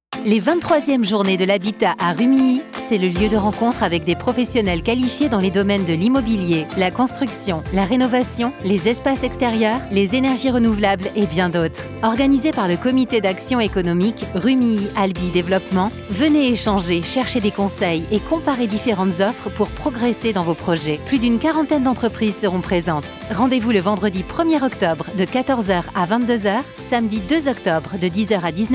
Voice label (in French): spot radio